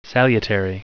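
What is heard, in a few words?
"salutary", English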